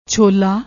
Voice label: "chhola", Punjabi; ਛੋਲਾ

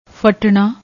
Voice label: "fattna", Punjabi

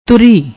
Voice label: "turi", Punjabi; ਤੁਰੀ

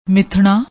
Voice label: "mithnna", Punjabi

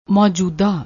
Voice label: "maoujoodaa", Punjabi

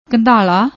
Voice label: "kandhalla", Punjabi; ਕੰਧਾਲ਼ਾ

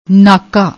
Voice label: "naaka", Punjabi; ਨਾਕਾ

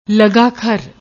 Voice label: "lagaakhar", Punjabi

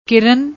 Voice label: "kiran", Punjabi

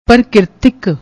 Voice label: "parkirtik", Punjabi

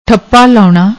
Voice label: "thappa laouna", Punjabi

